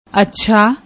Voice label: "achha", Punjabi; ਅੱਛਾ

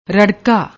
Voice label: "rarrkaa", Punjabi

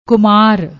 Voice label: "kumar", Punjabi; ਕੁਮਾਰ

